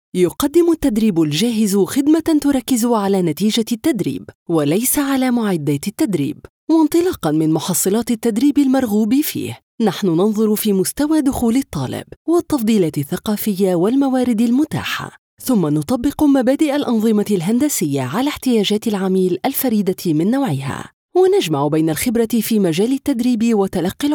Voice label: arabic narration